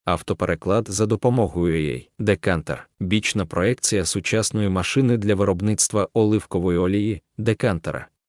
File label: ukrainian